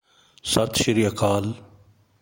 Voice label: Punjabi Hello formal; Greetings